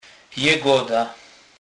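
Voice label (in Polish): "jegoda", Polish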